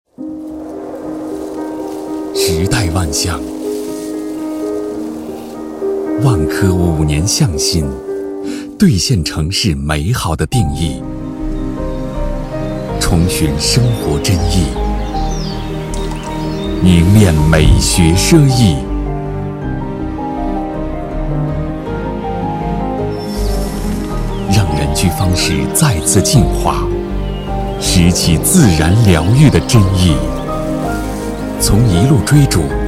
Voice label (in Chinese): 房地产广告样音